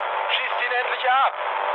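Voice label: German aircraft damaged mood low, speech; War Thunder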